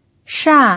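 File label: "sha", fourth tone, Mandarin Chinese pinyin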